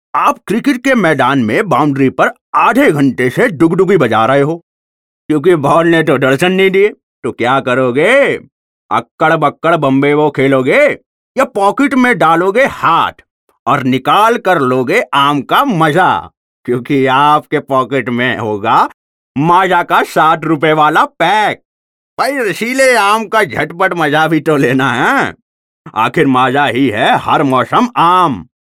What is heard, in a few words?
hindi Character, male voice